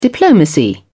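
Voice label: "diplomacy", British English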